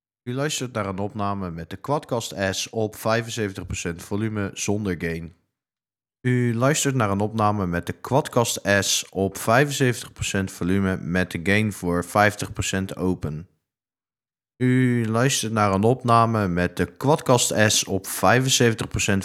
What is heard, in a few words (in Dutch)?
QuadCast S 75 procent met EQ Reverb Noise Gate; HyperX QuadCast S; review hyperx quadcast s